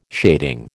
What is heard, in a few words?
"shading", American